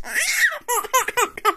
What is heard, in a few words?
Baby; asdfmovie